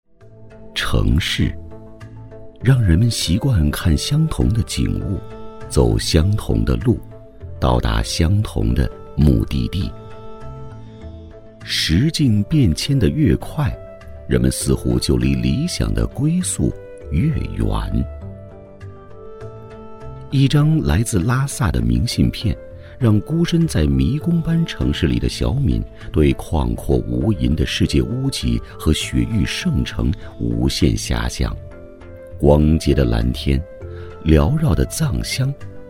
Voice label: 纪录片 人文历史, 男; 拉萨归来

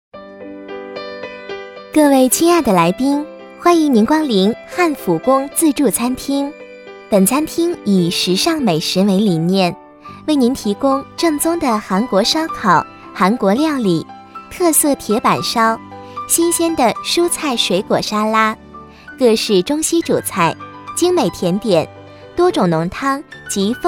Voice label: 多媒体 广播提示 自助餐厅广播; 汉釜宫

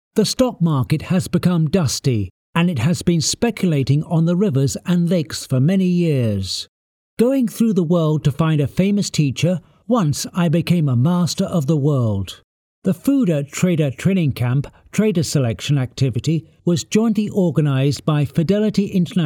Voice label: 外籍男英 外语 英式英语 常规专题; 富达

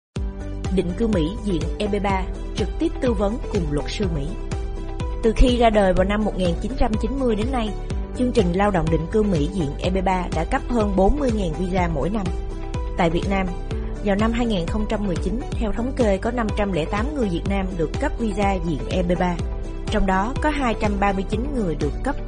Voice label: 越南语女 外语 小语种 活力

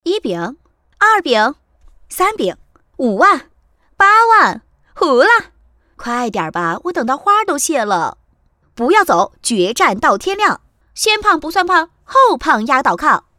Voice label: 动画 游戏 棋牌类麻将配音, 女国

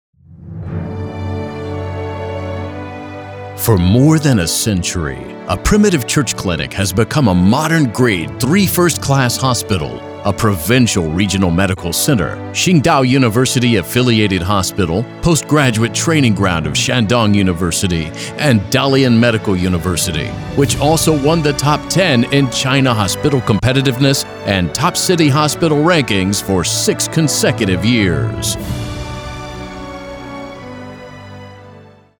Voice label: 外籍男英 外语 美式英语 大气; 玉皇顶

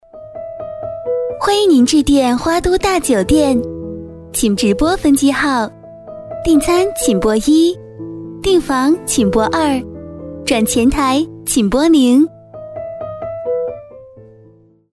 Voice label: 其他 彩铃 大酒店; 花都大酒店

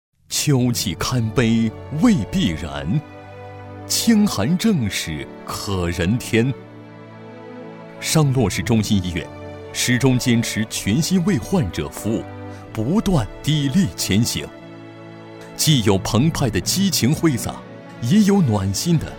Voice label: 专题 医院 温情; 商洛中心医院

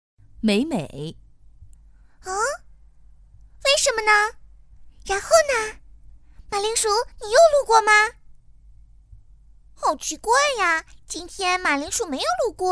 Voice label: 动画各类男女童声

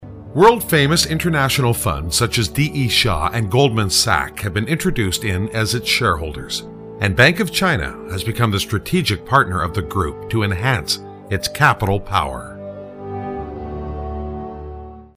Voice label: 外籍男英 外语 美式英语 老外试音

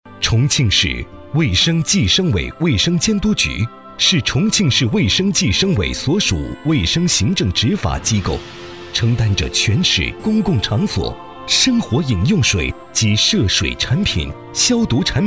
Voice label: 男国 专题 政府 磁性; 重庆市卫生监督

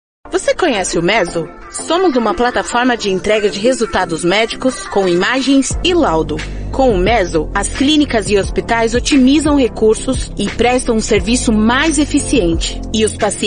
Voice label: 葡萄牙语女, 外语

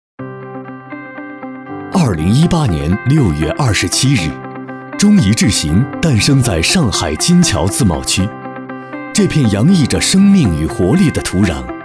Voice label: 男国 专题 企业 磁性; 中移德电

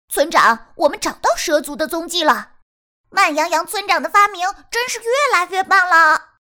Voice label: 模仿喜洋洋

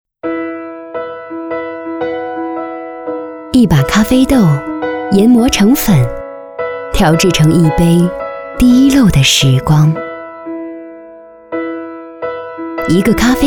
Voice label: 专题 产品 温柔; 左岸咖啡

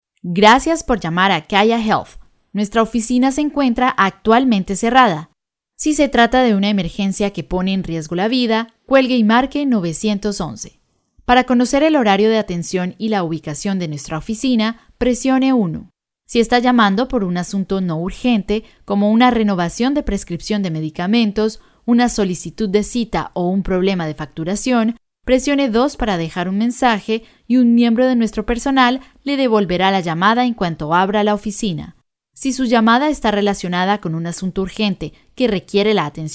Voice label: 法语女, 外语, 小语种

